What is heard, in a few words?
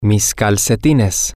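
male, spanish; socks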